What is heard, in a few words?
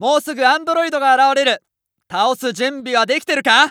Announce New Boss Prep, JPN; Eternal Return